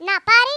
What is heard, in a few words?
bummer, Speech, Greek; Worms Armageddon